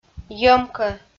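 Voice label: "йӧмкӧ", Komi, female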